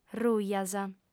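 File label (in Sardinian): "rujas", Sardinian, female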